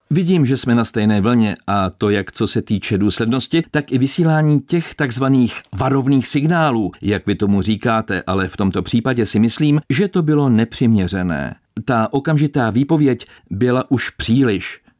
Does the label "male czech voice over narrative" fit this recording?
Yes